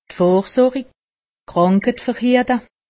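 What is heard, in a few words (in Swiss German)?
"vorsori", Alsatian; medecine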